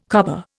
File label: "cobber", Indian